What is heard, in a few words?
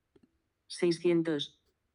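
"seiscientos", Spanish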